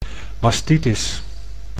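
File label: "mastitis", Dutch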